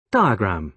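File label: "diagram", British English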